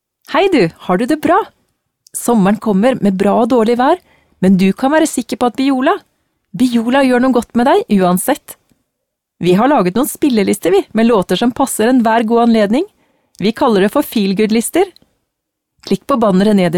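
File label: Norwegian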